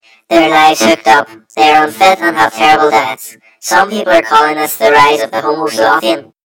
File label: story voiceover; yumpod